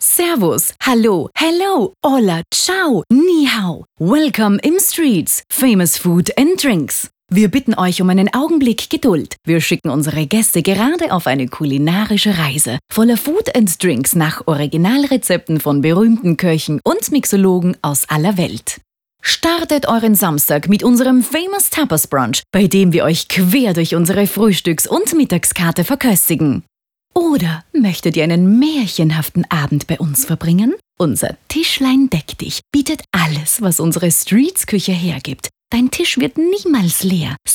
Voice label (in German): TEXT Telefonansage